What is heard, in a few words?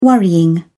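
"worrying", British English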